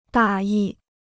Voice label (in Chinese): "大意", Chinese